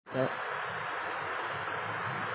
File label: Voice Note